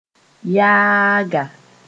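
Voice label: "yaaga", Mooré; basket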